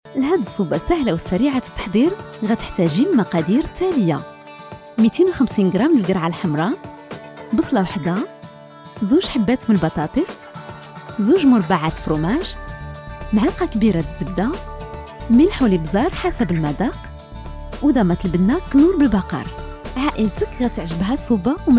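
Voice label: Arabic, Saudi Arabia, female, voice over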